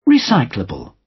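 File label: "recyclable", British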